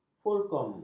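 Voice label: "fulkrum", Malay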